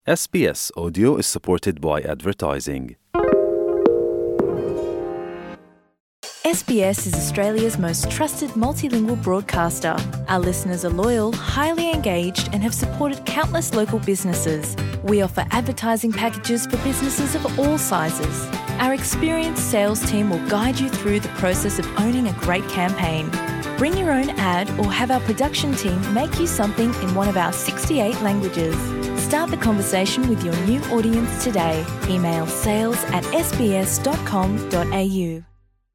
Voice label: gujarati news bulletin; sbs